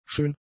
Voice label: "schön", german; adjectives

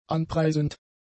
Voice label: "anpreisend", German; adjectives

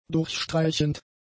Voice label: "durchstreichend", german; adjectives

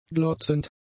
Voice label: "glotzend", German; adjectives

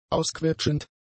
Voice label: "ausquetschend", German; adjectives

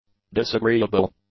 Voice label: "disagreeable", English; adjectives

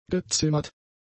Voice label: "gezimmert", German; adjectives

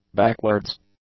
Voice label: "backwards", English; adjectives

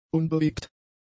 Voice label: "unbewegt", German; adjectives

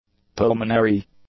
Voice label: "pulmonary", english; adjectives

